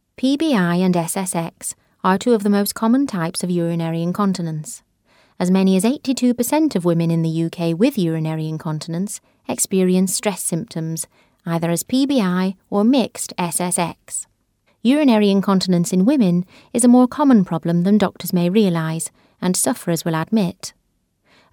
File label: Medical narration